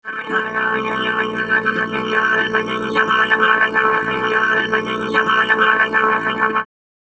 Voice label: angry; Cyberworms